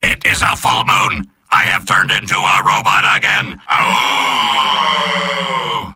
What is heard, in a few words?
soldier robot; mvm